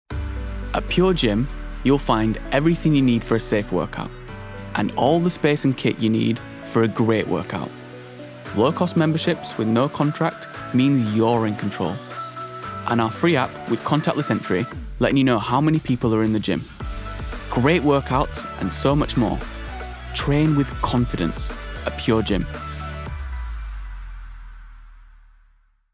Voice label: male english yorkshire accent commercial; english northern accents